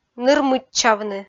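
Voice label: "ныр мыччавны", Komi, female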